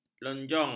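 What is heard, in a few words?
"lonjong", Malay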